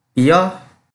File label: Kelantan-Pattani Malay